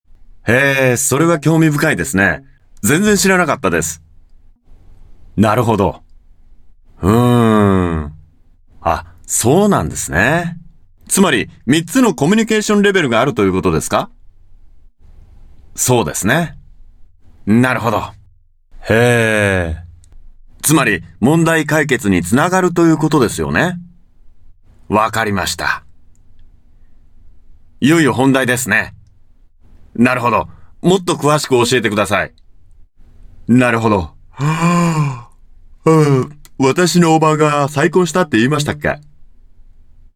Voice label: Japanese Listen Actively, Japanese Male

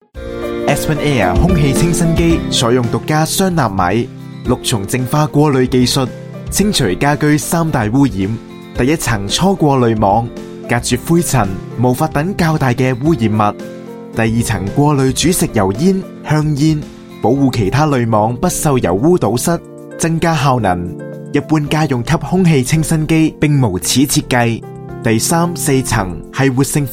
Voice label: Cantonese Online Promo; AspenAire

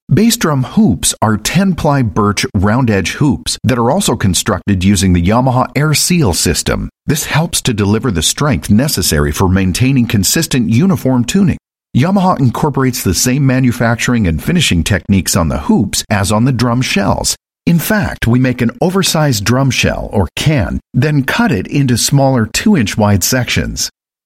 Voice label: US English eLearning

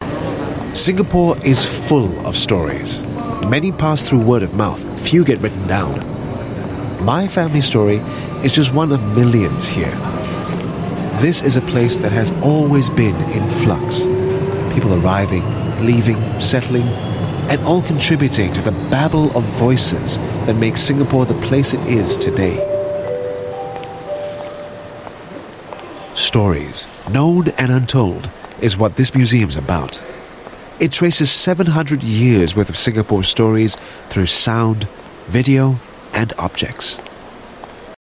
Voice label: Asian English; National Museum History Gallery

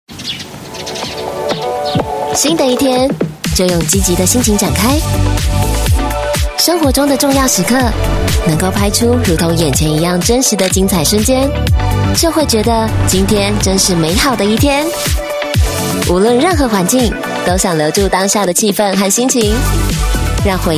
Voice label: Mandarin Taiwan Voice Sample

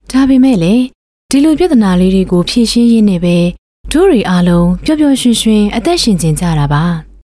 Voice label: Burmese Female

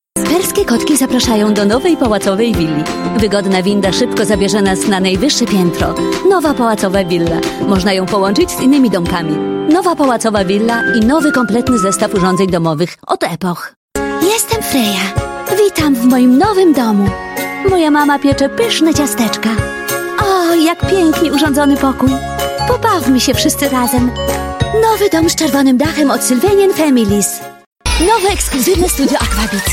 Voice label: Polish TV Spots

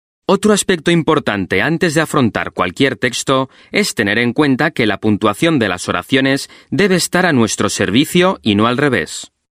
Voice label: Euro Spanish e-Learning

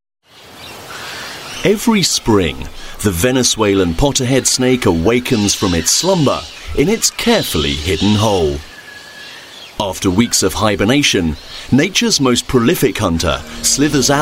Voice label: UK English Documentary

